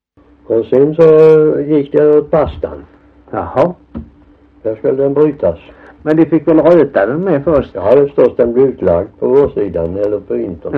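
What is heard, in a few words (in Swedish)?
Alfshög Halland, Svenska sagor dialekter; Folkminnen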